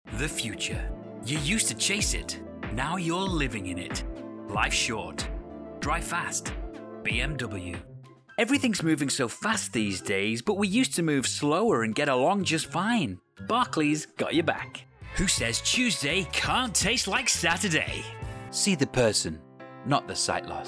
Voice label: foreigner